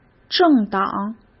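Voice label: "政党", Mandarin Chinese; chinese flashcards; hsk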